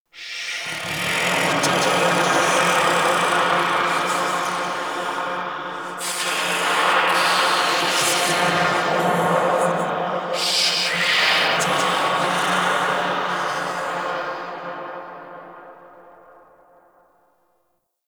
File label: Whisper; Warframe; Entrati; Dead End Secret; Dante Unbound